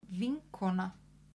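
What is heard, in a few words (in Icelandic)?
"vinkona", Icelandic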